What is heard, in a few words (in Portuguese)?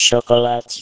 chocolate 120Hz garganta Pequena